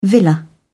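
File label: "villa", English, British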